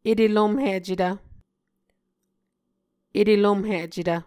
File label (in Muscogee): "etelomhicetv", Muscogee